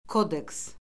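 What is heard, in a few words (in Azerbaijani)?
"kodeks", Azerbaijani; Orfoqrafiya Lüğəti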